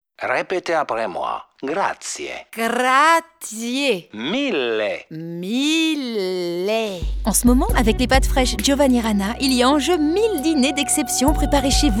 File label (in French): comedie signature enthousiaste